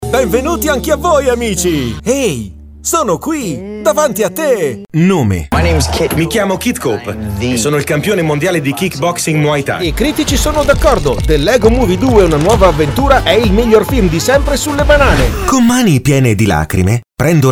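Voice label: Italian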